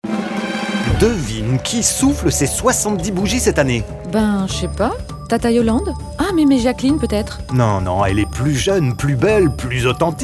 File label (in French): spot radio; marseillan; fb herault